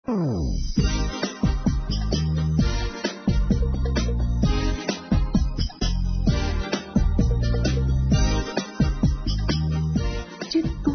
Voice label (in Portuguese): BOM TE CONHECER, Românticas, fem